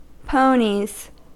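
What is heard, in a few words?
"ponies", US English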